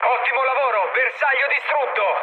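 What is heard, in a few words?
Italian bomb success mood med, speech; War Thunder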